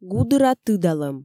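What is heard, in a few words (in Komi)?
"гудыра тыдалӧм", Komi, female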